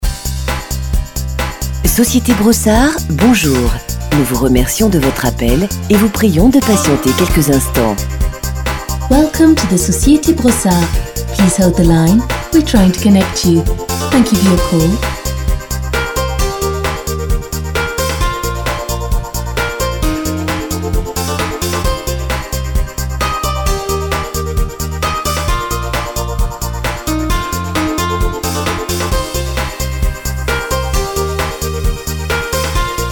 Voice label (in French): attente tel